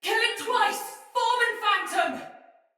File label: Female; Battle; Sinecure Soldier; en